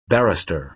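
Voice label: "barrister", American English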